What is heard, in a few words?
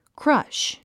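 "crush", English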